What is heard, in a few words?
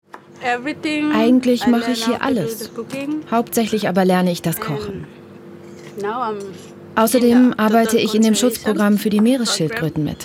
voiceover